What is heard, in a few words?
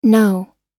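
"know", US English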